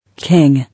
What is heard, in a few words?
"King", English